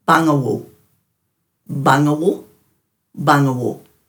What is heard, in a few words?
"bangawu", Dhurga